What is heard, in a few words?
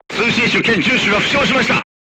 voice message machine gunner wounded, crew tanks japanese